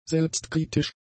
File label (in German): "selbstkritisch", German; adjectives